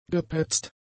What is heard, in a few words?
"gepetzt", German; adjectives